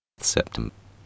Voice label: "septm", SEPTEM